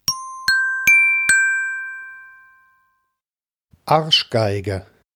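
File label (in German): "Arschgeige", German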